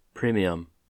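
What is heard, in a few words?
"premium", American English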